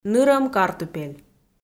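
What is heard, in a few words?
"нырӧм картупель", Komi, female; audio dict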